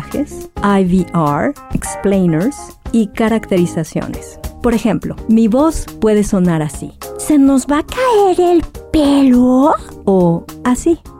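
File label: Spanish Mexican, female, voice over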